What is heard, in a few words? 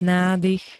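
"nádych", Slovak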